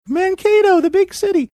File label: saying mankato big city funny